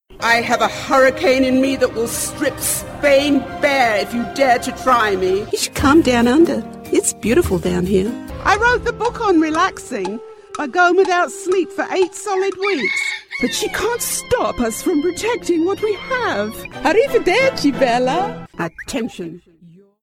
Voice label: English United Kingdom